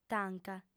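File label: "tanca", female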